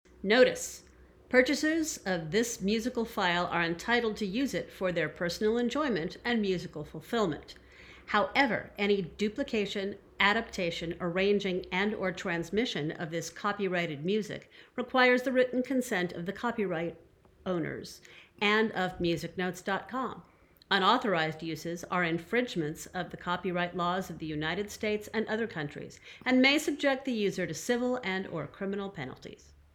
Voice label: Bad Audio MacBook Mic Audio